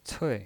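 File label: "cui", Mandarin Chinese pinyin voice, fourth tone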